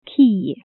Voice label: "dog", welsh